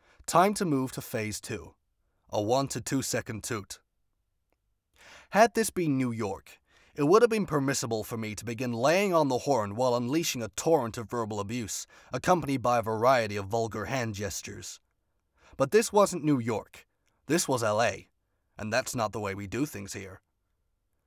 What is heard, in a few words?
Black Sedan USA; Sample voices